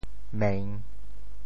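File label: "meng3", Teochew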